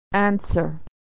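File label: "answer", American English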